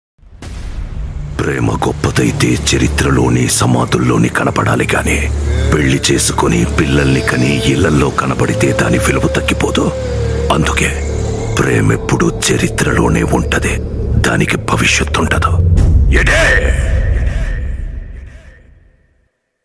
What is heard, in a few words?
dialogue ringtone; uppena